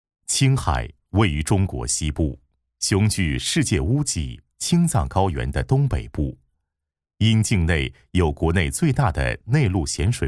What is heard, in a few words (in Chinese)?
男 宣传片 青海 对口支援